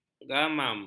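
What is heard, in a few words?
"gamam", Malay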